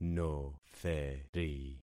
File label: "notheri", concat syllables; Affixing experiment